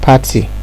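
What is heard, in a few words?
"party", Nigerian Pidgin, male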